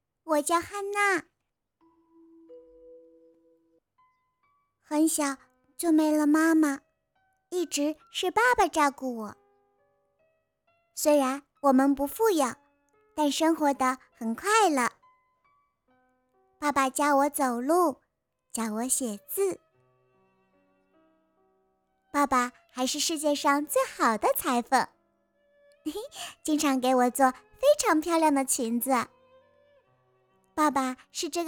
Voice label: 萝莉音

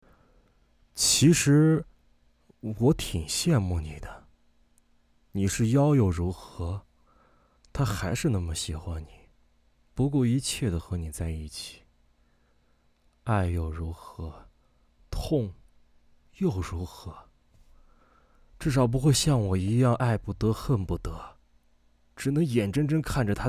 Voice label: 角色 平静 有噪

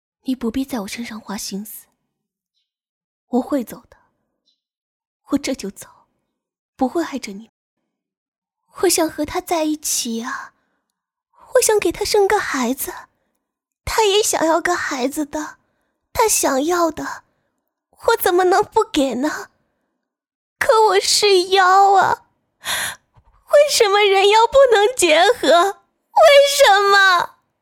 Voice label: 深情女声

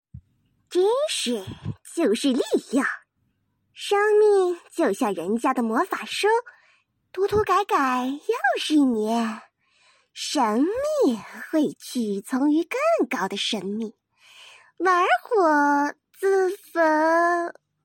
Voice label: 萝莉; 王者

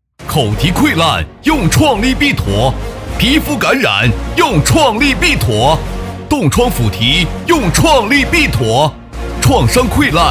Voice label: 大气; 创立必妥类土

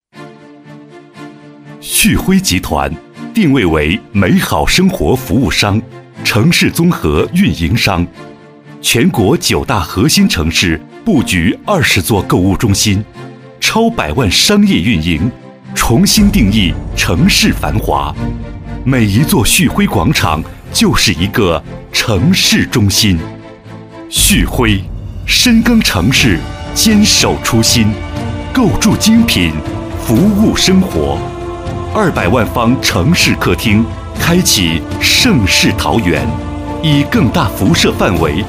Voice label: 品质 高端 专题 风格

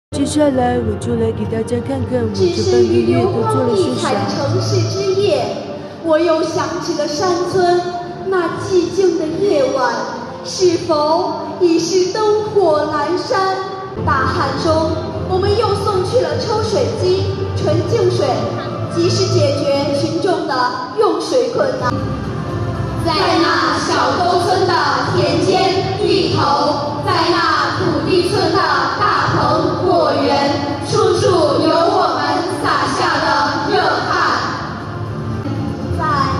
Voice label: 诵读

